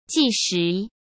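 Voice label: "纪实", Mandarin Chinese; hsk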